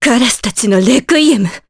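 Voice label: Vox Skill, voices, Japanese; Maria; kings raid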